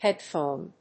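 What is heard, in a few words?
"headphone", English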